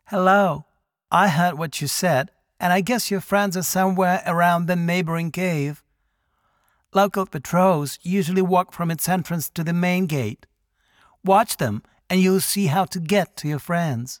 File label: Voice Dialog; we are the dwarves gamerip 2016